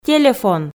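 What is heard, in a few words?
"телефон", Komi, female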